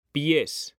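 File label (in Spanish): "PIES", Spanish; mekanta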